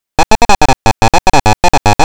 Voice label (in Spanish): Castellano masculino sin estudios superiores; Tortosa